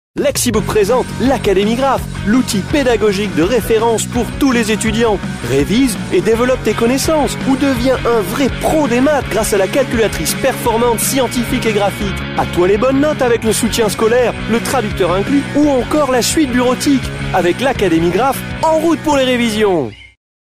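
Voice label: French male TV commercial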